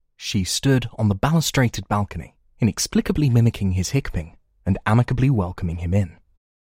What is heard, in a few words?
UK Native Younger